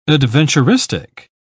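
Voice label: "adventuristic", British English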